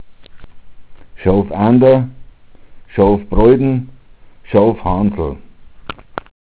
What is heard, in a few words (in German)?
"schouf", dialekt